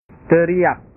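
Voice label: "teriak", Indonesian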